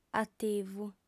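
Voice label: "ativu", female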